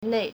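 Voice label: "nei4", Mandarin Chinese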